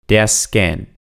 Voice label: "der Scan", German

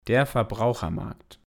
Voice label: "der Verbrauchermarkt", German

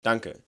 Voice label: "Danke", German

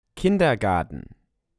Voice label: "Kindergarten", German

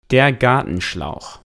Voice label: "der Gartenschlauch", German

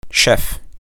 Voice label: "Chef", German

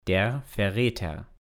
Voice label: "der Verrater", German

